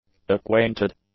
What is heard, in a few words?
"acquainted", English; adjectives